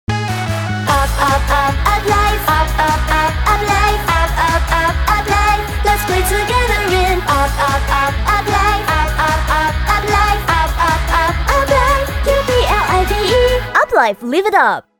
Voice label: english promos